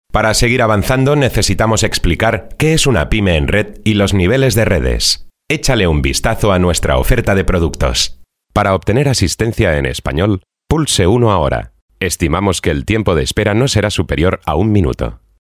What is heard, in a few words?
Spanish Male CASTELLANO Multimedia